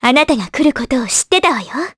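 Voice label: vox Japanese; Artemia; kingsraid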